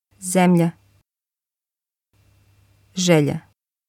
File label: zemlja, bosnisch; land